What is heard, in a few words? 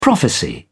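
"prophecy", British English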